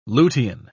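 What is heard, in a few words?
"lutein", British English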